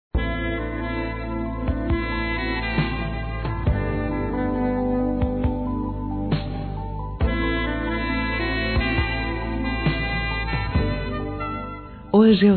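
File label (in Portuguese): Telemensagens, Feminino; ANIV.NEUTRO-F; Amizade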